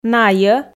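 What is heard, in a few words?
"найӧ", Komi, female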